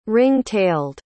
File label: "ring-tailed", pronounce